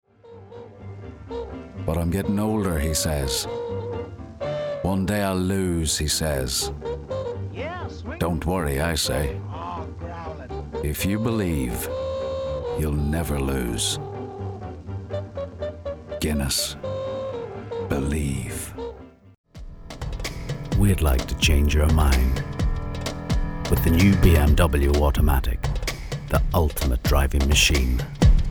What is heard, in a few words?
Commercial